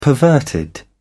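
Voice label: "perverted", British English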